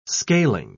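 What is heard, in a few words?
"scaling", British English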